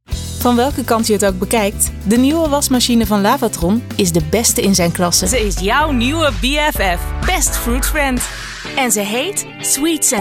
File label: Allround voice-over